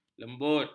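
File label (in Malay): "lembut", Malay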